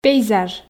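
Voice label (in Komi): "пейзаж", Komi, female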